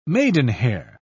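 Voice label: "maidenhair", British English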